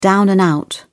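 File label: "down-and-out", British English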